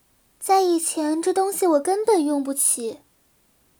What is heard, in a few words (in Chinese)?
使用道具, BattleCry; 薇薇安